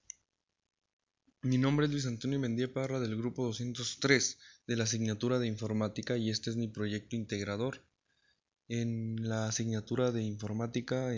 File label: voice changer